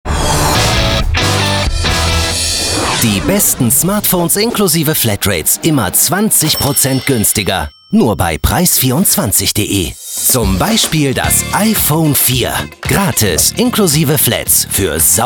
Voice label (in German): tv spot; Sprecher in Köln; Muttersprache Deutsch; preis24de